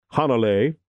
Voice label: "HANALEI", pronunciation, Hawaii

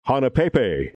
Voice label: "HANAPEPE", pronunciation, Hawaii

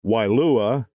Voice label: "WAILUA", pronunciation, Hawaii

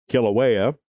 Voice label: "KILAUEA", pronunciation, Hawaii

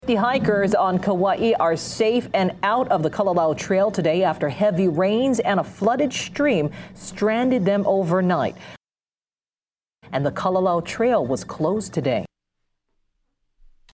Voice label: kalalau trail, pronunciation hawaii

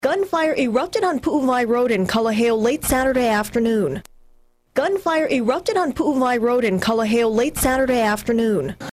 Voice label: puuwai, pronunciation hawaii

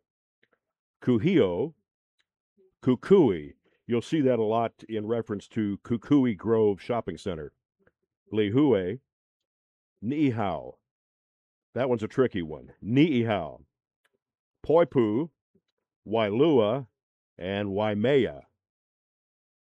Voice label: Kauai Pronouncer

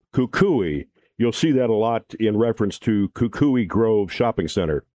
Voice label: KUKUI, pronunciation, Hawaii

